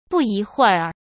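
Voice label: "不一会儿", Mandarin Chinese; hsk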